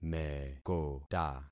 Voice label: "mekota", concat syllables; Affixing experiment

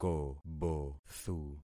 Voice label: "kobothu", concat syllables; Affixing experiment

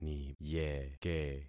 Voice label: "niyeke", concat syllables; Affixing experiment

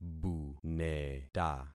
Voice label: "buneta", concat syllables; Affixing experiment

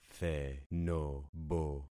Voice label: "thenobo", concat syllables; Affixing experiment

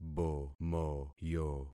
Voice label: "bomoyo", concat syllables; Affixing experiment

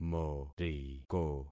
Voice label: "moriko", concat syllables; Affixing experiment

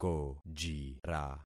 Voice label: "kojira", concat syllables; Affixing experiment

